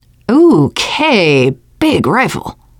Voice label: take assault rifle, teen girl; player; survivor; voice

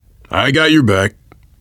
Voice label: im with you, voice, biker; Left 4 Dead 2; left4dead2 dlc3; survivor

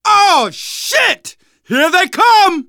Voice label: incoming, manager, survivor, voice; Left 4 Dead 2; left4dead2 dlc2

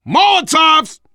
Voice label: spot grenades, voice, biker; Left 4 Dead 2; survivor

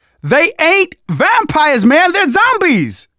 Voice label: generic, manager, survivor, voice; Left 4 Dead 2

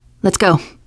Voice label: answer ready, teen girl; player; survivor; voice

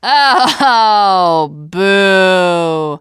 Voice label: second saferoom, teengirl, voice

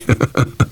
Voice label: reaction positive, voice, biker; Left 4 Dead 2; survivor

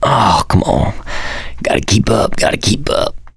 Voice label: going to die, survivor voice mechanic

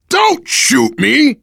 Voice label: friendly fire, voice, biker; Left 4 Dead 2; left4dead2 dlc3; survivor

